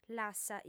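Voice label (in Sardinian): "lassa", Sardinian, female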